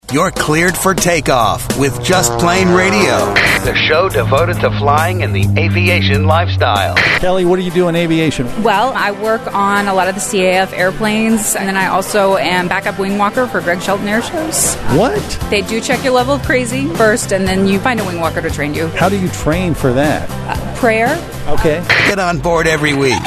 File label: Promo